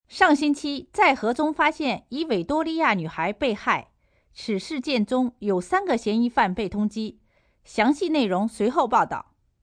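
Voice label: News Murder Mandarin, Broadcast, news, female news item in Manderin; on Victoria girl murder; human voice speaking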